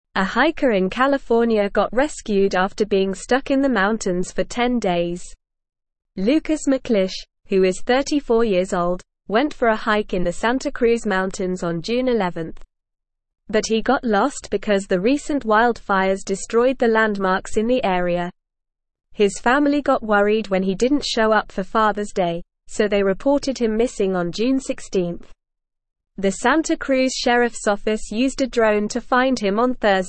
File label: English NORMAL Reading; Hiker Rescued After 10 Days Stranded in Mountains